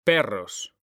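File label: "perros", Spanish; mekanta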